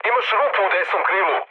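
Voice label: Serbian right wing mood low, speech; War Thunder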